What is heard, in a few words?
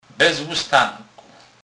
bez lustanku, Kurpie; slownik